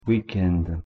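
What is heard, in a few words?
pronunciation "week-end", French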